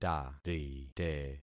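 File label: "tarite", concatenated syllables; Affixing experiment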